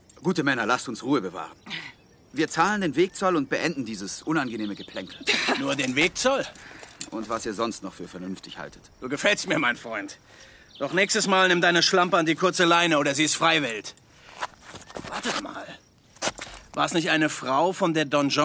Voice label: hoerspiel; hamlet; kill shakespeare

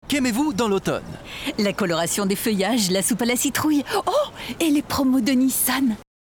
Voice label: Franzoesisch Werbung Funk; Nissan

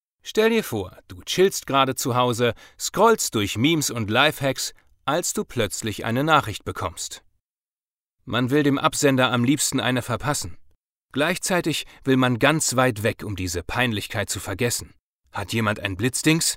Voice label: Erklaerfilm

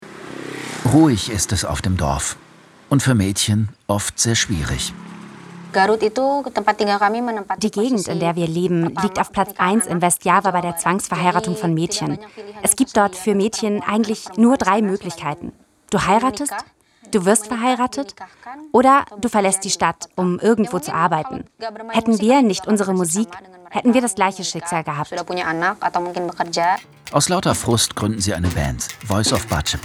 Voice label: Overvoice Doku; Weltspiegel

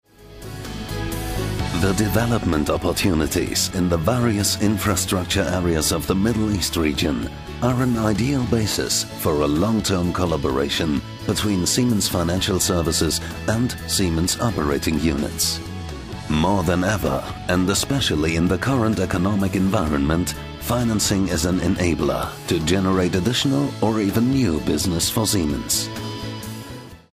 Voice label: Trailer englisch

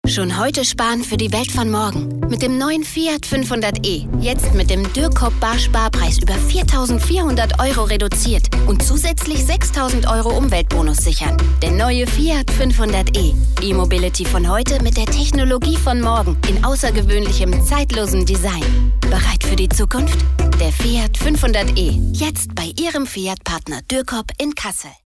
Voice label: Radiowerbung; LOFT VOICES

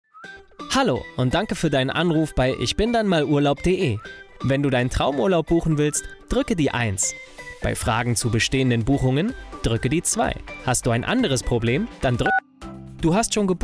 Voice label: Telefonansage locker frisch; LOFT VOICES

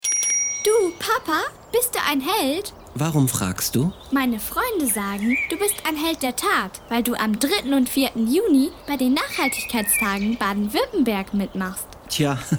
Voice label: Werbung Radio

